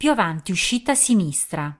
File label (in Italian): Ah Exit Left, Italian, female